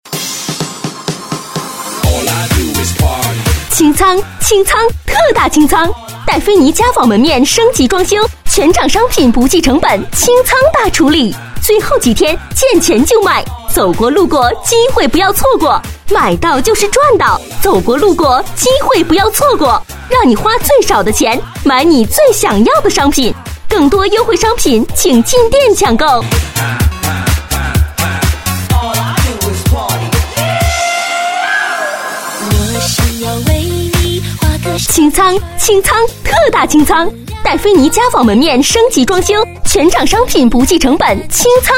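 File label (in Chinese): 女国大音-促销-清仓叫卖; 促销配音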